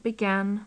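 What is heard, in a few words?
"began", British English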